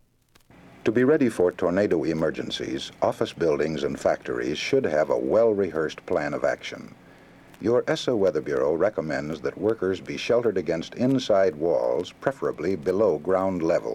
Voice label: tornado announcement safety; ESSA